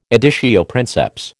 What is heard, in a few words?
"editio princeps", American